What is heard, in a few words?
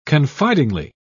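"confidingly", British English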